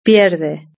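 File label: "pierde", Spanish; verb-conjugator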